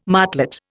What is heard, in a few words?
"martlet", Indian